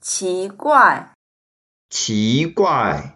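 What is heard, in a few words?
"qi2guai4", Mandarin Chinese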